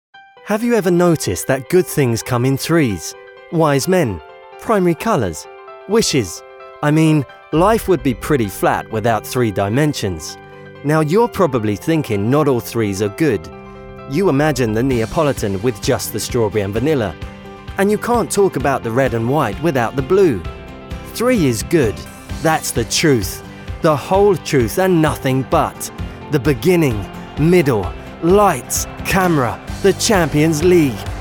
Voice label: english british